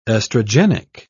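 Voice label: "estrogenic", British English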